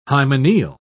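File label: "hymeneal", British English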